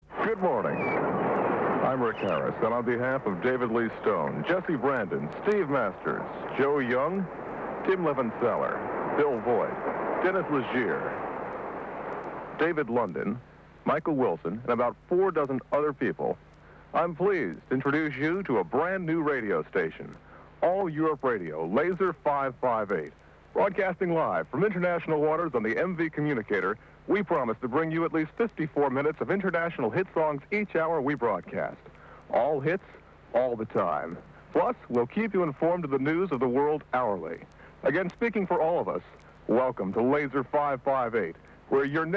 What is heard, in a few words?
opening announcement; Laser 558